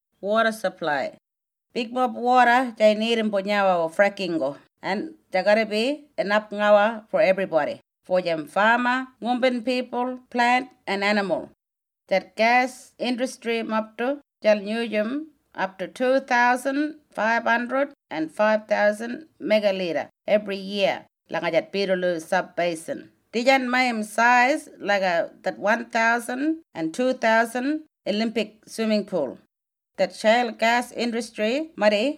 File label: Ngarinyman; WATER SUPPLY